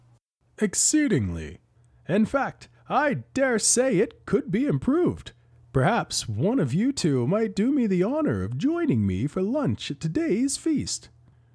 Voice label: Noble and dignified hero with refined noble accent and commanding eloquence, Audiobook Hero; Sean Gorrik